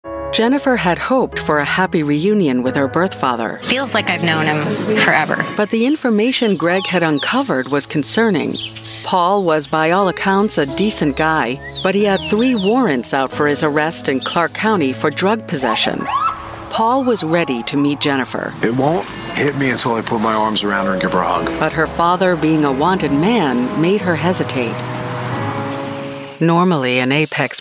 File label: american voice documentary